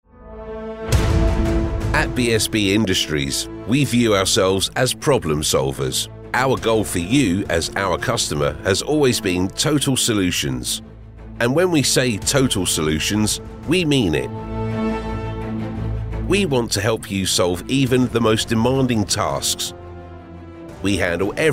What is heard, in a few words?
Corporate Narration